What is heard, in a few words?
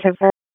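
Police Spec Ops Tac, radio; Raleigh; wake; p25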